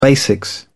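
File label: "basics", British English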